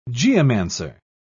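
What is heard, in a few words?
"geomancer", British English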